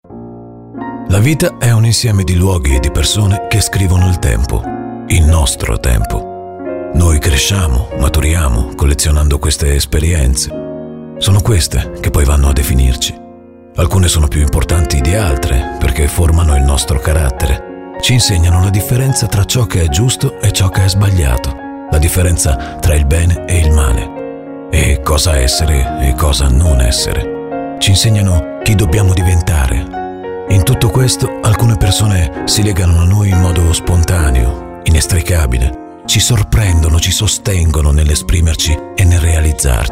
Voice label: spot